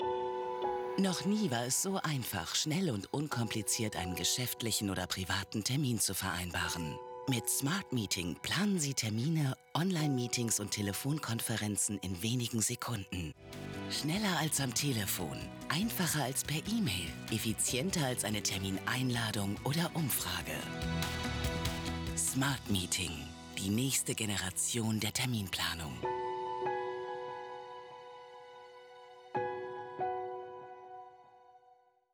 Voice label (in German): Imagefilm; Smartmeeting